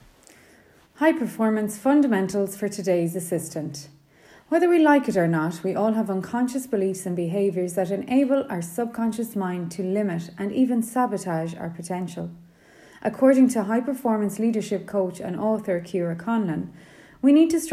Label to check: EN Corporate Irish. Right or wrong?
Right